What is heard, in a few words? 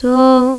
Solfege, Claire's Voice; Opcode Systems